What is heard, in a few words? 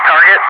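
"target", English, Wingman; DCS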